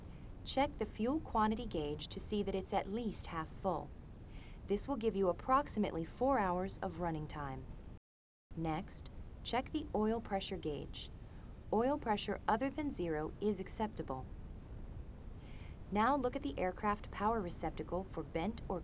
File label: narration short